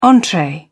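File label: "entree", English, British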